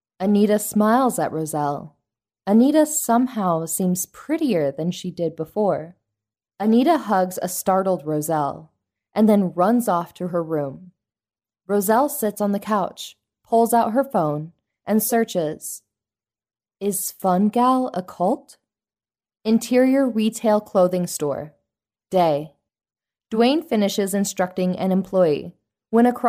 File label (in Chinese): 美式女声